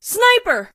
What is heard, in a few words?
"sniper", Female; Voiceover; war; Kenney's Sound Pack